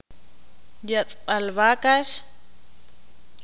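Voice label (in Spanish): Rastro donde se mata animales, Spanish, Ixil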